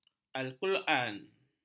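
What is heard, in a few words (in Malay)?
"al-quran", Malay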